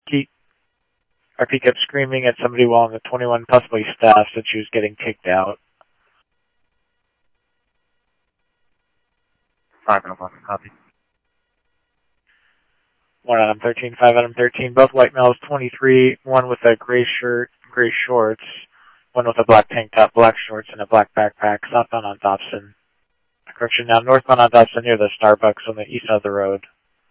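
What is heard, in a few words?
Mesa Simulcast Police Department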